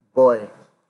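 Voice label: "boy", Nigerian Pidgin, male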